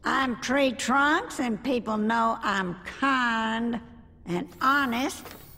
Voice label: Treetrunks rant